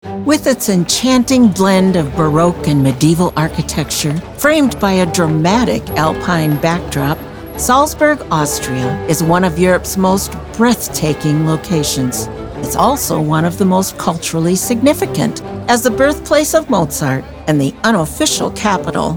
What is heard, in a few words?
commercial showreel; Vibrant Voices